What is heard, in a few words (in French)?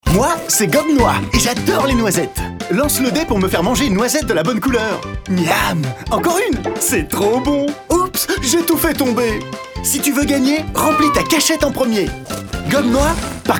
Ton jeunesse excité; GOLIATH; Gobe Noix